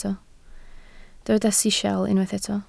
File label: "sisial", Welsh